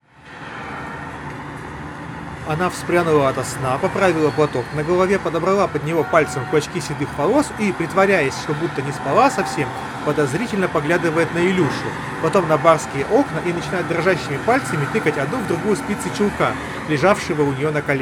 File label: Recorder noise; redmi headphones neo